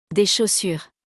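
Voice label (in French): "Des chaussures", French; vocabulaire; le lexique de l'habillement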